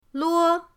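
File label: "luo1", Chinese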